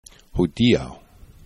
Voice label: "hodiaux", esperanto